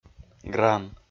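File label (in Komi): "гран", Komi, male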